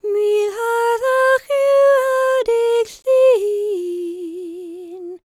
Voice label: WELSH F, World Voices; ILIO; Vocal Planet; WELSH FEM